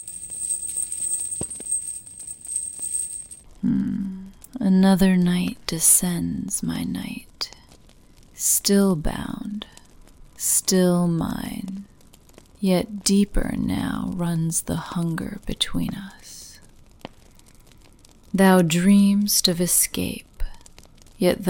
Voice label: Sensual, Teasing, Erotic, F4A; Fantasy; Demon Queen; Dark Seduction; Ep2 intro; The Lust Scriptures

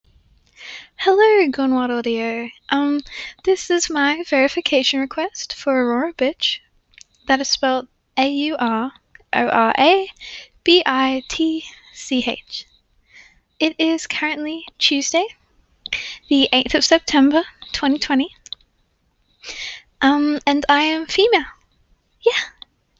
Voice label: Female Verification

